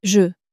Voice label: "je", French